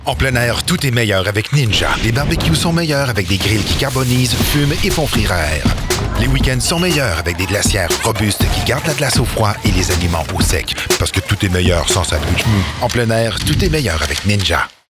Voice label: male french canadian commercial; ninja